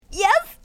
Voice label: panicked yes